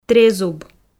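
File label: "трезуб", Komi, female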